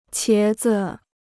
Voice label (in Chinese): "茄子", Chinese